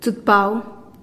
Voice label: "tsutpau" woodpecker, teotitlan

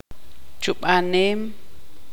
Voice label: tzutujil; escupir

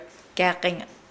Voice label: Nukuoro; ladder

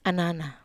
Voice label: "anana", Wamesa

